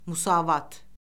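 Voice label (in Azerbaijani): "müsavat", Azerbaijani; Orfoqrafiya Lüğəti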